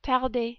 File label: "tarder", French